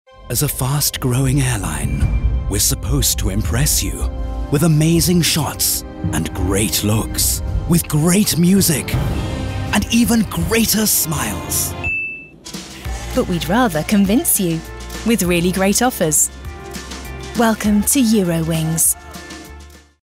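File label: British English, Aufgeregt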